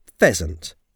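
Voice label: "pheasant", English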